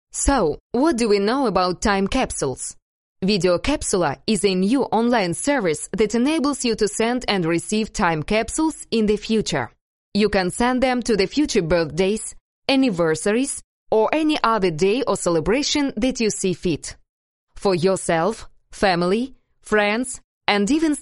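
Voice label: female, Russian English, narration, accent of russia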